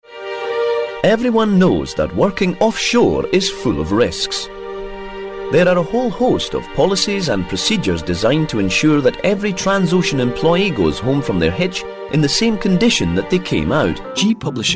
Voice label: Scottish Male, elearning voices